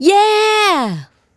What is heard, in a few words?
Yeah, Swingin Chiks Spoken